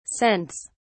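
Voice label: "cents", British English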